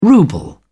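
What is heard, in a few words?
"ruble", English, British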